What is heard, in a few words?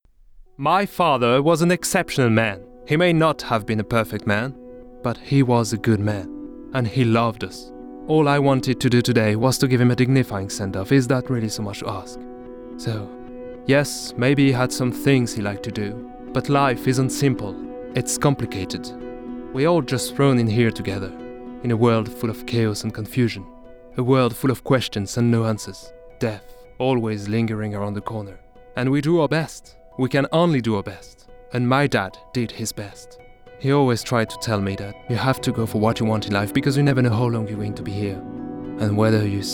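French English male narration; voice over; accent of france; UK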